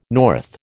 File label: "north", American